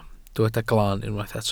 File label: "glaan", welsh